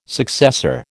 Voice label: "successor", American

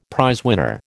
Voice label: "prizewinner", American

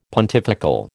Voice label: "pontifical", American

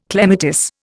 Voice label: "clematis", Indian

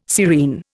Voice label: "serene", Indian

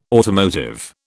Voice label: "automotive", British

